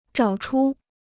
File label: "找出", Chinese